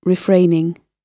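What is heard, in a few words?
"refraining", British English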